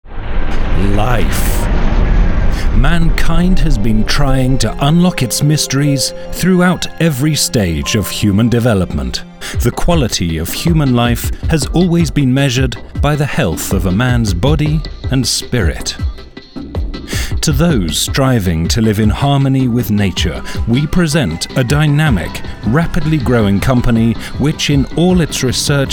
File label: English voice GB